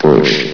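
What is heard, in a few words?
"push", male synthetic announcer voice; source engine; hl1; video games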